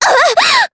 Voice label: Vox Damage jp; Lorraine; kings raid

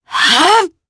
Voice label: Casting, jp; voices; heroes; Demia; kings raid

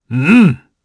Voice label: Vox, jp; Roman; kings raid

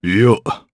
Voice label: Vox Deny, jp; voices heroes jp; Bernheim; kingsraid

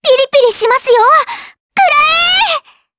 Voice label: Vox Skill jp; Estelle; kingsraid

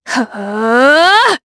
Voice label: Vox Casting jp; Tanya; kingsraid

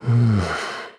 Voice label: think, kr; Siegfried; kingsraid

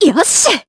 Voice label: Happy, jp; Yanne; kingsraid

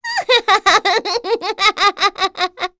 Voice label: Vox Happy; Pansirone; kingsraid

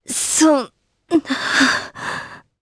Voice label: Dead, jp; Priscilla; kingsraid

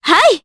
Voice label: Vox, jp; voices; heroes; Juno; kingsraid

